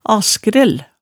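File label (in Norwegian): "askrell", Hemsedal dialect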